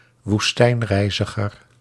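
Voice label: "woestijnreiziger", Dutch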